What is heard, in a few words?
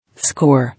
"Score", English